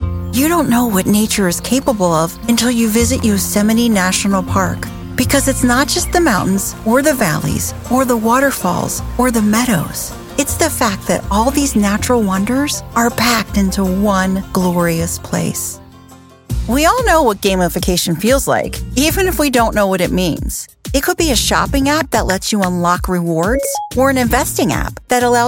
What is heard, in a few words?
Narration